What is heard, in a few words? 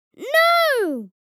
"No" vocalization boy child kid